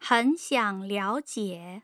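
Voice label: "hen xiang liaojie", Mandarin Chinese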